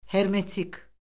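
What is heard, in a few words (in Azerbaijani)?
"hermetik", Azerbaijani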